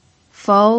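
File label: "fou", Mandarin Chinese, second tone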